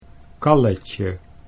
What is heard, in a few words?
"kalletje", Dutch